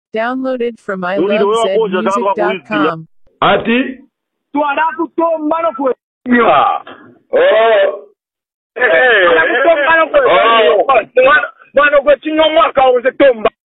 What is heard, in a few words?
Phone Conversation